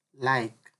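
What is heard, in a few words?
"like", Nigerian Pidgin, male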